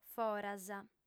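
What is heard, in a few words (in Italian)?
"foras", lingua sarda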